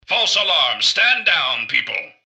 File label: generic robot alert to normal; mrgutsy; npc